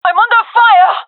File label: taking fire, gendarmerie fem; vo